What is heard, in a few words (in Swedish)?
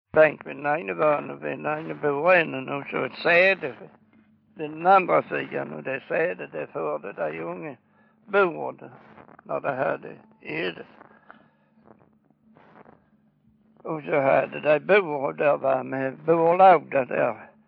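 Örkelljunga Skåne, dialekter, svenska sagor; Folkminnen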